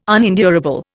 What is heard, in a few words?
"unendurable", Indian